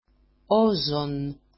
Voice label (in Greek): "ozon", Greek; όζoν; ozone